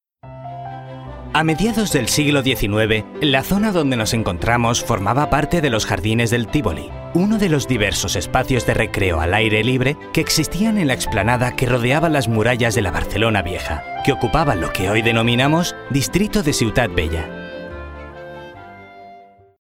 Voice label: male spanish audioguide comercial; ideasonora